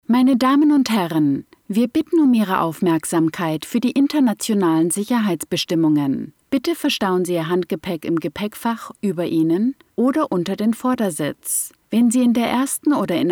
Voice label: Flightsafety German